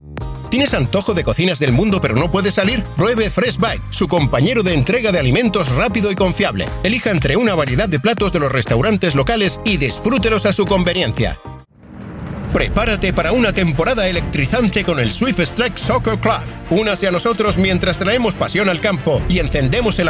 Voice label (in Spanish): LOCUCIÓN COMERCIAL